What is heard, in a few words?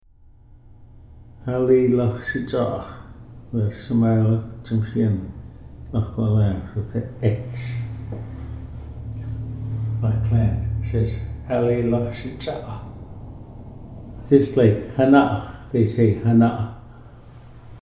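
Halilax sitsaax, Ts’msyen; gravel bar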